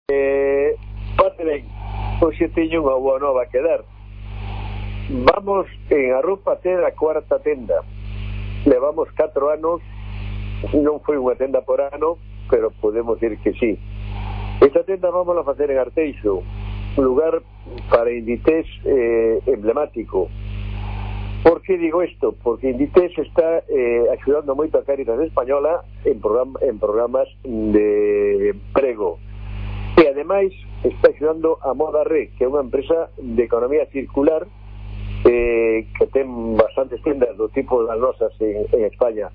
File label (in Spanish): ANUNCIO